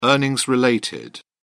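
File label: "earnings related", British English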